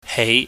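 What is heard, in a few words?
"hei", Cantonese, mid rising tone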